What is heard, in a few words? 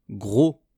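"gros", French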